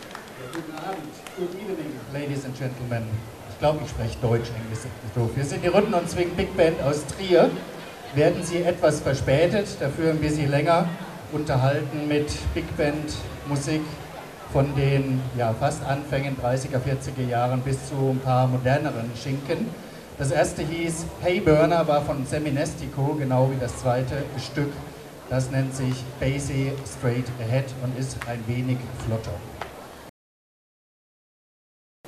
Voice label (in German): Ansage; Konzert Summer In The City (Place D’Armes, LU-Zentrum)